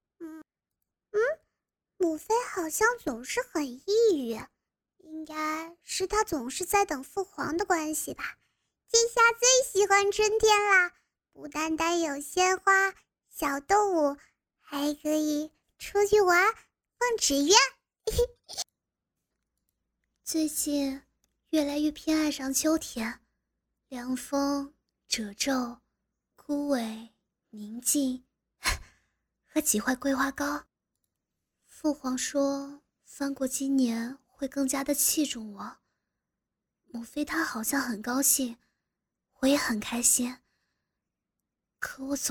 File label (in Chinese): 游戏角色配音 元气少女